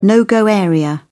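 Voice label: "no go area", British English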